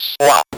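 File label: "swap" English, Speak & Spell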